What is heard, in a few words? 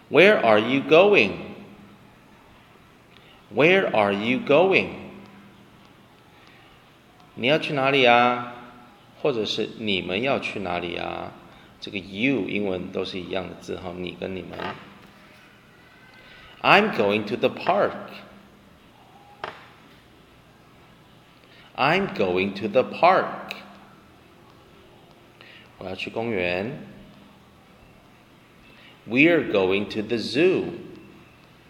conversation